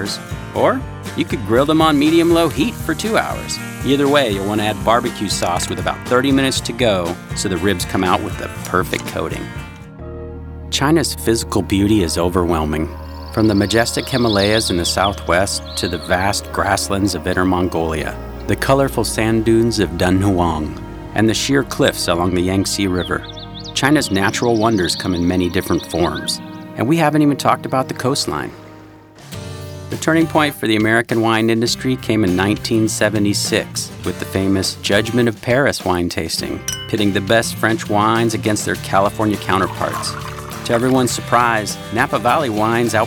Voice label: Narration, The Warm Voice That Tells Your Story